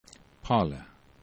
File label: "pale", esperanto